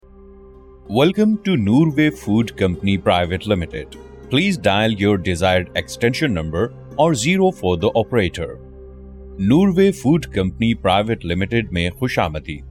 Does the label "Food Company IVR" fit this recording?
Yes